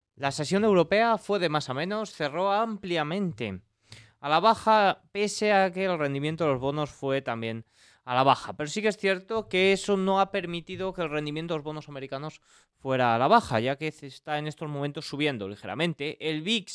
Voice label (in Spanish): radio; noticias bolsa